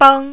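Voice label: "beng" tone 1, Mandarin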